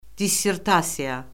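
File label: "dissertasiya", Azerbaijani; Orfoqrafiya Lüğəti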